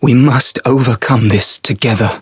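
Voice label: Voice encounter fear; clarte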